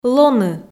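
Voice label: "лоны", Komi, female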